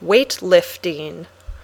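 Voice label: "weight lifting", English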